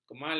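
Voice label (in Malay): "kemala", Malay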